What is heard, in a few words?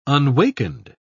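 "unwakened", English, British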